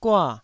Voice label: "gua4", Taiwanese syllable